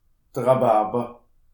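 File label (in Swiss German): "rhabarber", Alsatian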